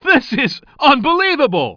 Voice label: commentator